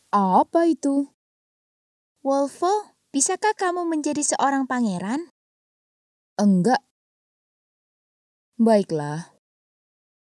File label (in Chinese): 印尼语 女声 角色